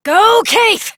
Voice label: Horse Sprint Adult; Duviri